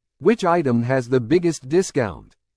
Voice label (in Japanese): ノーマル